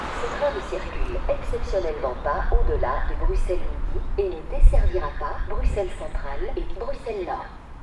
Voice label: Belgian Announce Bruxelles